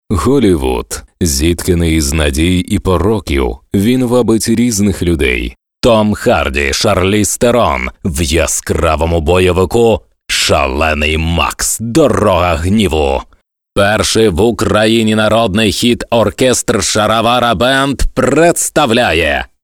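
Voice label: Ukrainian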